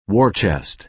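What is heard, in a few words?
"war chest", American English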